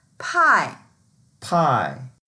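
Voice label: "pai4", Mandarin Chinese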